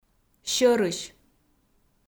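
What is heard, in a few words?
"сьӧрысь", Komi, female; kpv audio dict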